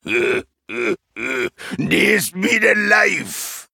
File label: Male Troll Play; Tony Two Tusk; Duels Minion